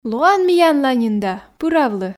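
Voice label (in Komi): лоан миянланьын да пыравлы, Komi, female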